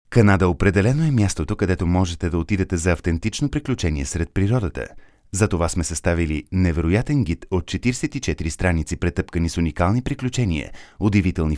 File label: bulgarian informative